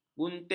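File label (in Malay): "buntil", Malay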